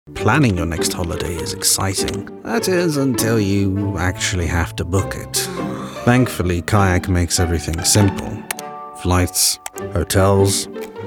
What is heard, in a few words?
Commercial